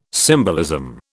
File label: "symbolism", British